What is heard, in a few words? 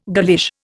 "girlish", Indian